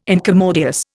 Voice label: "incommodious", Indian